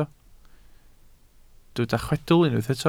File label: "chwedl", Welsh